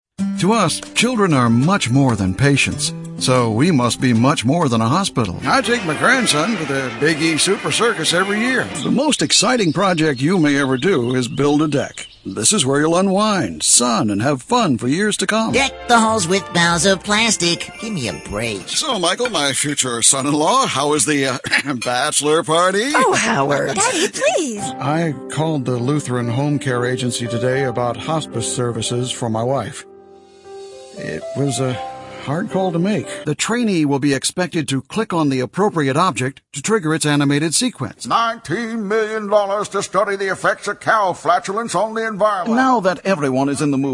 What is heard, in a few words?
male; Spotland Productions